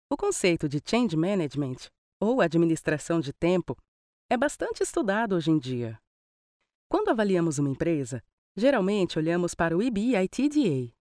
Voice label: portuguese brazilian explainer videos